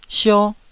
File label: "sio1", Taiwanese syllable